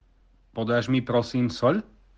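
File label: "soľ", Slovak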